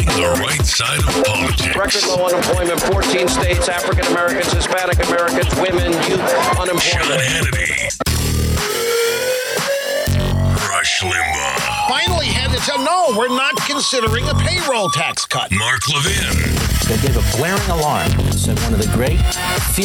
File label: News Talk Imaging